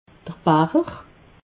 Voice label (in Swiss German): "bacher", alsace